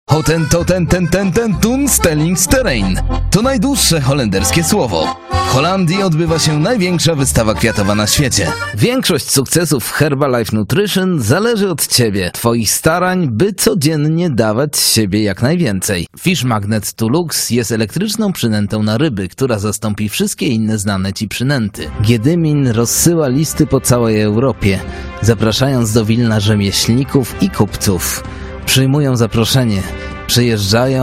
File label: Polish